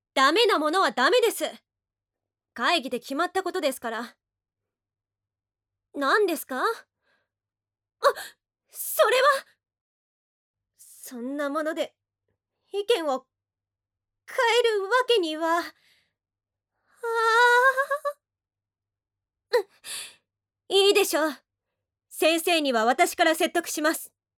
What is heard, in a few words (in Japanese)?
アニメ